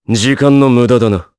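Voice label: Vox Skill, voices, Japanese; Riheet; kingsraid audio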